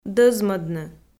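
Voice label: "дӧзмӧдны", Komi, female; kpv audio dict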